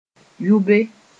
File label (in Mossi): "yʋbe", moore